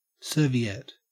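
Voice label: "serviette", Australian English; pronunciations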